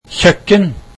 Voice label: kitchen, norwegian

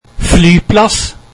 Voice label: airport, norwegian

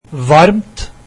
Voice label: hot, norwegian

